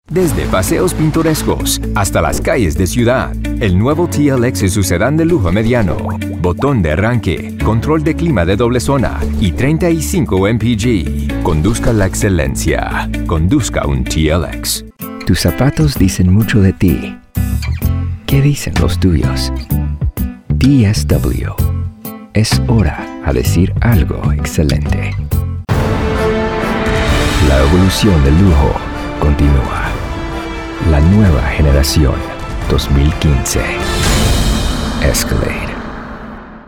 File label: Commercial Spanish